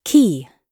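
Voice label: "key", British English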